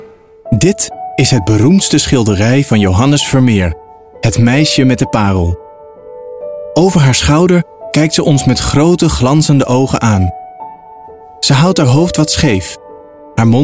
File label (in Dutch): verwondering warm